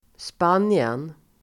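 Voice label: "spanien", Swedish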